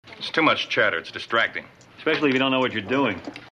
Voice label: chatter